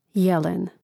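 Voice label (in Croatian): "jelen", Hrvatski; mreznik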